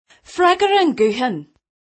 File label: answer the phone in gaelic